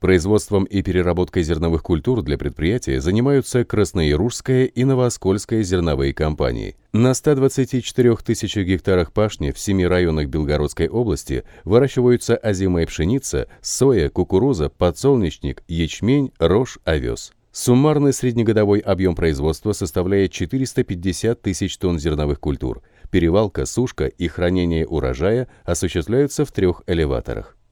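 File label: presentation dynamic Russian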